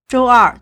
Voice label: "zhou1 er2", Chinese; 周二 (週二) zhōuèr; proper noun; Tuesday; time